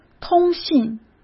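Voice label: "通信", Mandarin Chinese; chinese flashcards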